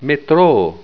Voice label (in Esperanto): "metroo", Esperanto